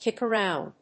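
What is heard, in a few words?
"kick around", English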